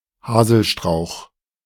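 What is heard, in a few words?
"Haselstrauch" German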